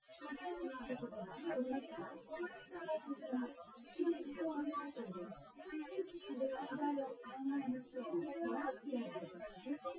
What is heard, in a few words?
female synth conv 250ms 5cm sim; SiSEC08; SiSEC underdetermined